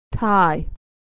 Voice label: "thai"